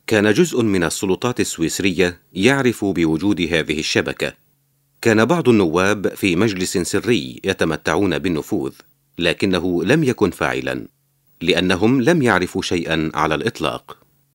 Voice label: locutor arabe arabic male voiceover; Arabic male voice over. Lebanon